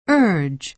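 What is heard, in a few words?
"urge", American English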